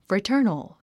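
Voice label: "fraternal", English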